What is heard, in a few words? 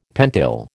"pentyl", American